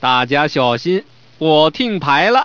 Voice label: "ting", 麻将, 男; 欢乐麻将

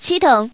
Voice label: "7tong", 麻将, 女; 欢乐麻将

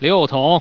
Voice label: "6 tong", 男, 麻将; 欢乐麻将

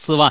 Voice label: "4wan", 麻将, 男; 欢乐麻将

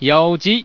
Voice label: "1 tiao", 男, 麻将; 欢乐麻将

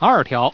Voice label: "2 tiao", 男, 麻将; 欢乐麻将

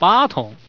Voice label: "8 tong", 男, 麻将; 欢乐麻将

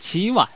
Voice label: "7wan", 麻将, 男; 欢乐麻将

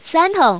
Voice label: "3 tong", 女, 麻将; 欢乐麻将

